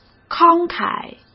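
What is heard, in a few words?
"慷慨", Mandarin Chinese; hsk; chinese-flashcards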